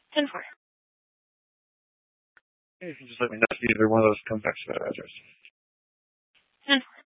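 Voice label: Tempe police department, scanner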